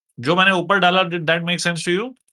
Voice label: voice message; water